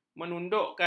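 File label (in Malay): "menundukkan", Malay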